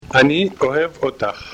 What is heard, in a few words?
Hebrew